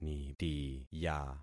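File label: "nitiya", concatenated syllables; Affixing experiment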